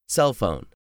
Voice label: "cellphone", English; 3000 words

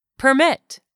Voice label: "PERMIT", English; 3000 words

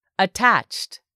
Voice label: "ATTACHED", english; 3000 words

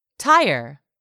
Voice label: "tire", English; 3000 words

